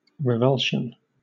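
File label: "revulsion", British English; pronunciations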